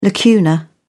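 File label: "lacuna", British English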